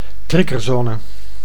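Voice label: "triggerzone", Dutch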